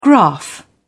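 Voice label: "graph", British English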